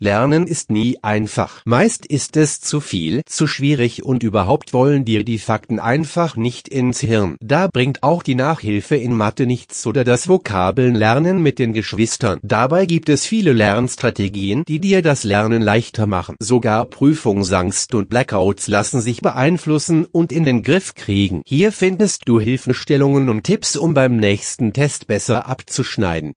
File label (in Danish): Tysk